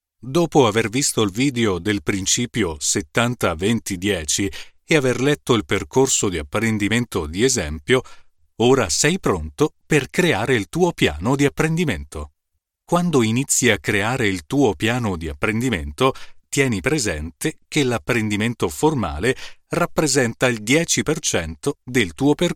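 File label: Italian, E-Learning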